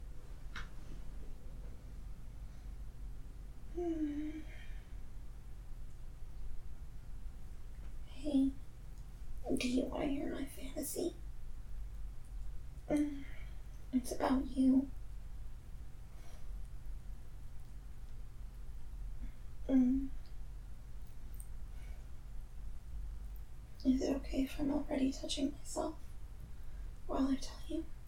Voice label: [F4M] Ramblefap [wet noises]; Cheer-themed Ramblefap; [Ddlg]